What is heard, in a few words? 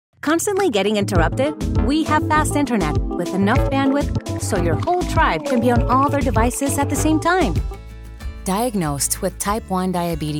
spanish mexican commercial, voice actors